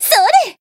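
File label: attack, Japanese; toova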